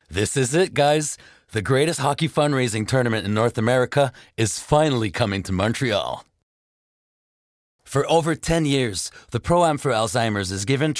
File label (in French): Voix eng